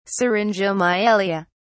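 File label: "syringomyelia", British English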